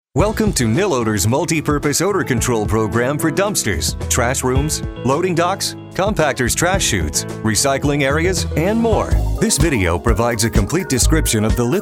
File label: E Learning